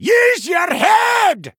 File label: Male Dwarf Attack